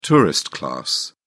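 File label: "tourist class", British English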